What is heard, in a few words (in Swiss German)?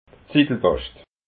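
"zitelbascht", Alsatian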